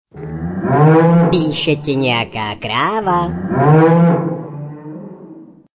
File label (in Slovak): sms hlásky cz